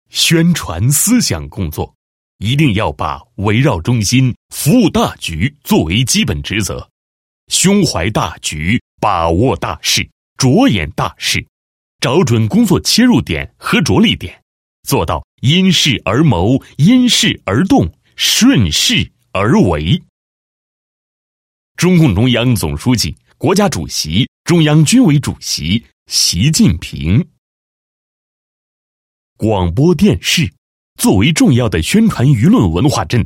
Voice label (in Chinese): 男 企业 广播电视台宣传介绍